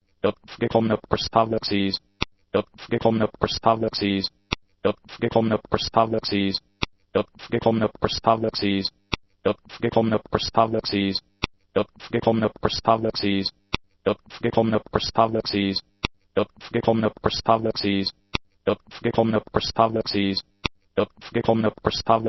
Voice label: How to pronounce abcdefghijklmnopqrstuvwxyz